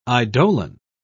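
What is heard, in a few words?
"eidolon", British English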